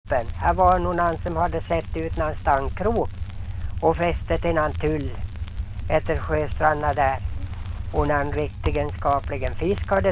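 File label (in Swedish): Ljustorp Medelpad, dialekter; Folkminnen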